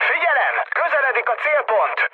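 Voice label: Hungarian near waypoint mood med, speech; War Thunder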